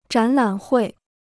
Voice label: "展览会", Chinese

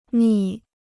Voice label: "蜜", Chinese

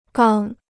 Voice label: "刚", Chinese

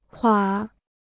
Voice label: "垮", Chinese

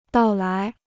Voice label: "到来", Chinese

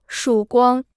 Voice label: "曙光", Chinese

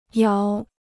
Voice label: "窑", Chinese

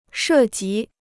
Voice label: "涉及", Chinese

